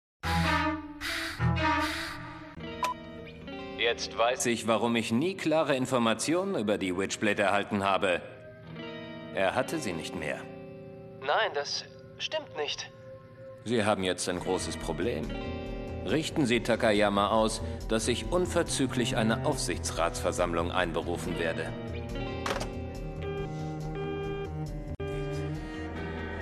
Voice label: anime; LOFT VOICES